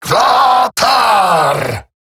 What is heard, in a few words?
Ability Short Cast, Grineer Male, en; Eximus; Battle